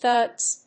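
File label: "Thuds", English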